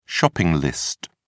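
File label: "shopping list", British English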